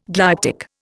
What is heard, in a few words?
"glyptic", Indian